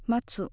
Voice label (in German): "matsu" warten, japanese accent